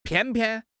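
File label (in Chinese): "偏偏", Chinese; HSK6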